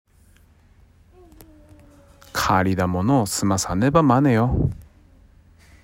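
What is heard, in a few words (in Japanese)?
津軽方言 例文, 借りだもの すまさねば まね よ; （借りたものは返さないとだめだよ）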